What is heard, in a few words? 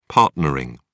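"partnering", British English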